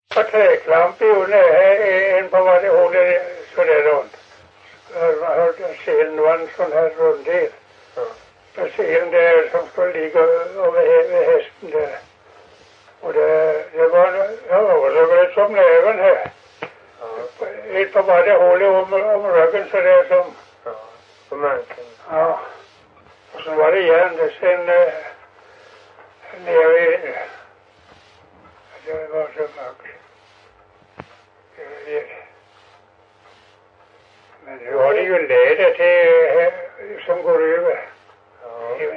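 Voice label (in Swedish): Stenåsa Öland, Svenska sagor dialekter; Folkminnen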